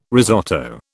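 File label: "risotto", British English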